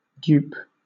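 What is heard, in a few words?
"dupe", British English; pronunciation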